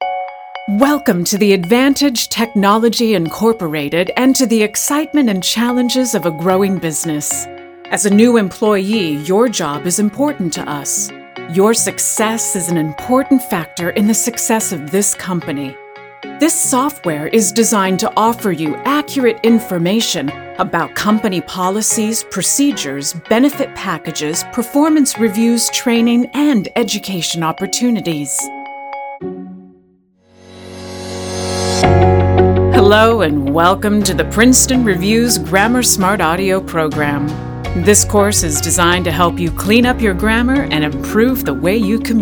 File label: Corporate Narration